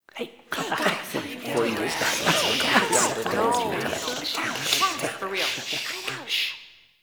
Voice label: Student Chatter; Cinematics; Warframe; TheNewWar; Zariman

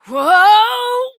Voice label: Horse Stop Adult; Duviri

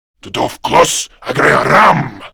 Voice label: Attack Grineer Male; Warframe; Battle Barks

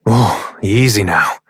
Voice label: Horse Calm Adult; Duviri

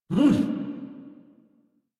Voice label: Pain, en

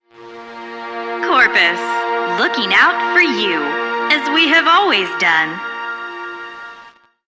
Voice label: PA System, en; Warframe; Solaris Venus

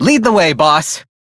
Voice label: Crew Follow, Male; Dialog; Warframe; Railjack Crew; Perrin Sequence

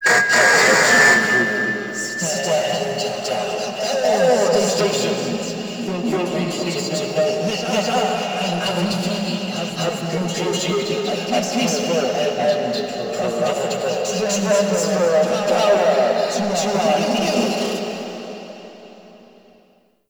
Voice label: Announce; Cinematics; Red Nebula; Alad V

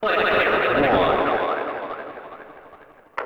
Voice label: Arcade Voices; Warframe; Ambience; Nineteen Ninety Nine

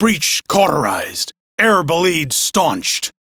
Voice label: Crew Fix Breach, Male; Warframe; Railjack Crew; Arbiters Hexis

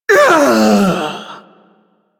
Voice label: Ability Long Cast, Barks; Warframe; Corpus Lich; Persona C

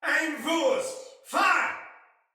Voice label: Attack Soldier Male; Battle; Sinecure; en

